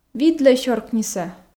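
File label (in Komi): "видлӧй сёркнисӧ", Komi, female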